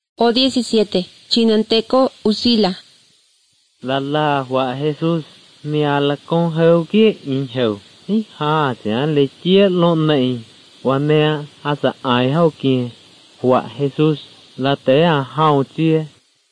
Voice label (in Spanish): spanish mexico